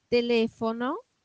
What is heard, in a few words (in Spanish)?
"telefono", espanol